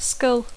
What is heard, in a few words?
"skull", English